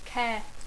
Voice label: "care", English

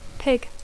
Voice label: "pig", English

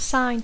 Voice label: "sign", English; firmar; signar; senyal; indicació

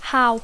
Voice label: "how", English; adverbi; com

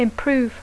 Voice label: "improve", English

